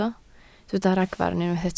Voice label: "ragfarn", Welsh